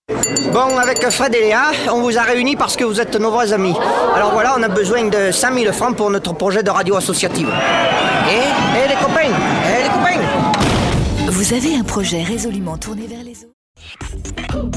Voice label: Accents Medley